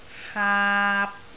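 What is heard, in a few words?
"haap3", Cantonese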